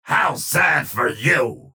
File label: vo chatter positive; strogg infiltrator; frag